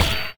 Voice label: heavy pain; player; Dystopia